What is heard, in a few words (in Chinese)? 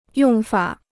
"用法", Chinese